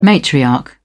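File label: "matriarch", British English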